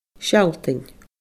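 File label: "Sealtainn", Scottish Gaelic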